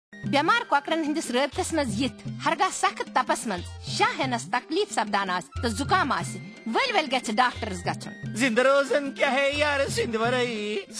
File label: Radio PSA (Kashmiri); Prevention; Seek Treatment